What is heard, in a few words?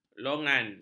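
"longan", Malay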